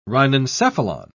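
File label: "rhinencephalon", British English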